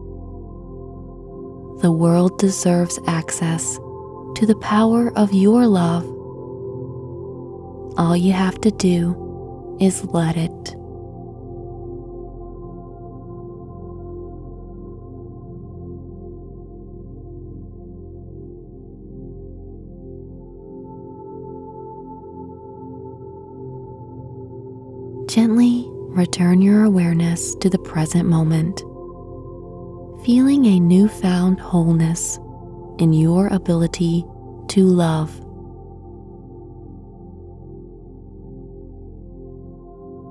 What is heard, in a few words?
Meditation for a Broken Heart